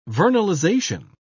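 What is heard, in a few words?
"vernalization", British English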